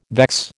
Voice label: "vex", American